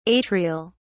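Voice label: "atrial", English, British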